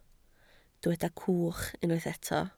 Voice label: "cwch", Welsh

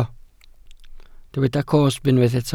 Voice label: "cosb", welsh

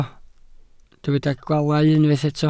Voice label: "gwaalau", welsh

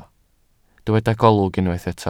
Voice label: "golwg", Welsh

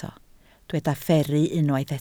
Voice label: "fferru", Welsh

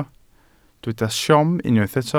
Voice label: "siom", Welsh

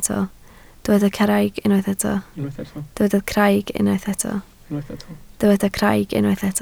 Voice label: "craig", Welsh

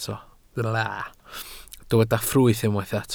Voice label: "ffrwyth", Welsh

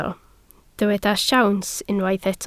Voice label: "siawns", Welsh

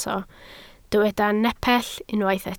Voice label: "nepell", Welsh

